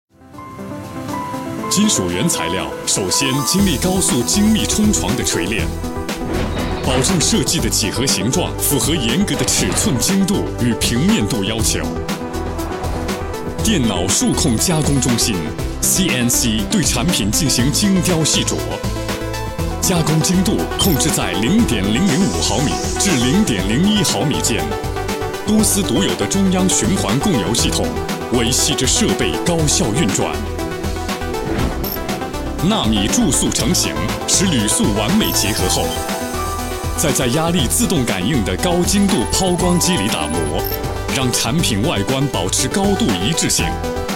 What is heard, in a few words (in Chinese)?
专题 企业; 苹果中国